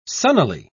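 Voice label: "sunnily", English, uk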